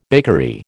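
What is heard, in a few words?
"bakery", American English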